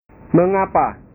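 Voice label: "mengapa", Indonesian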